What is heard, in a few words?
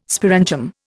"sporangium", Indian